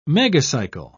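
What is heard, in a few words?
"megacycle", English, British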